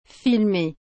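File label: "filmer", French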